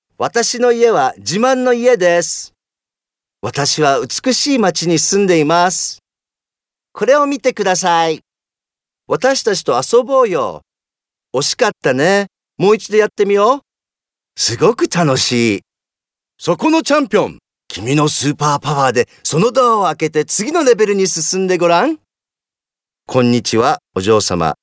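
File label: Japanese male children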